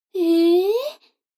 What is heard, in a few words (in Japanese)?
女の子 台詞, ASMR